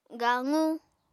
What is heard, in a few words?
"gaqu", Apatani